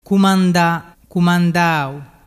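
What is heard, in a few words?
"cumandadau", Vernazzese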